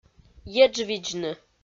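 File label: "еджвидзны", Komi, female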